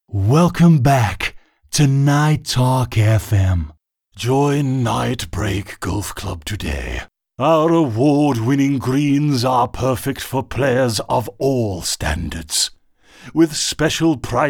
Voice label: Radio